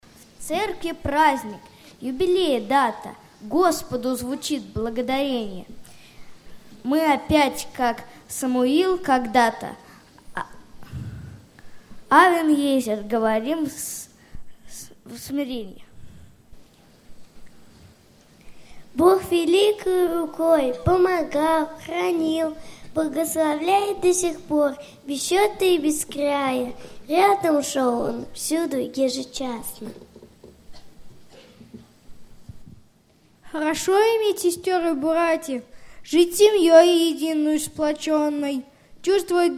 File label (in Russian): Detskaya deklamaciya; 50-юбилей Московской церкви